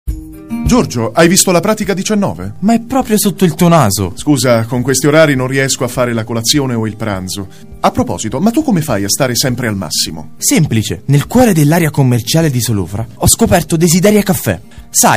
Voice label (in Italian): generica doppia voce; voci